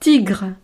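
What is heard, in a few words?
"tigre", French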